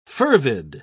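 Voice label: "fervid", British English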